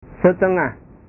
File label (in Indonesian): "setengah", bahasa